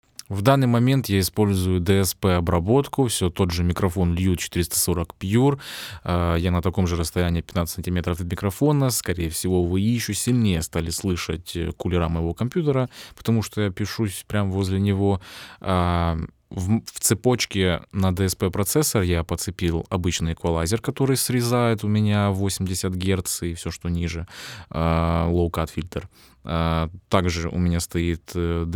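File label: antelope zen go test voice DSP processing; Тест Голос DSP Обработка; Antelope Zen Go — аудиоинтерфейс с DSP процессором и топовыми AD\DA конверторами на борту.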